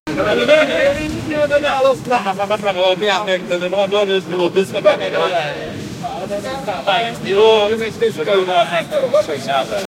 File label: mens are talking in market